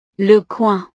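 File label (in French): "le coing", French; el membrillo; frutas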